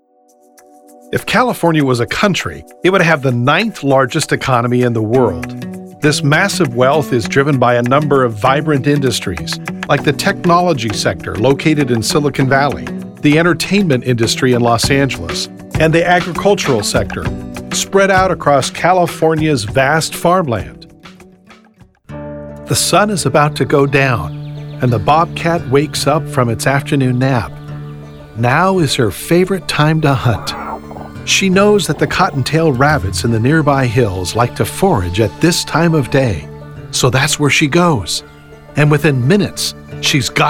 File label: Narration